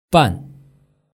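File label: "ban", mandarin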